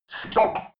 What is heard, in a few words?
robot voice stop fx